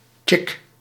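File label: "chick", Dutch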